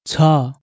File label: "tsha", Nepali